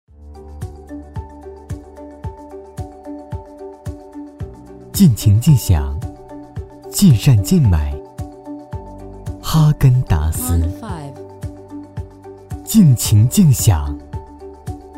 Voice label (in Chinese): 广告; 哈根达斯